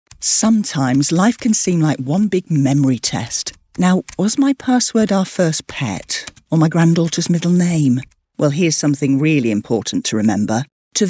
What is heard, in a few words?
soft northern informercial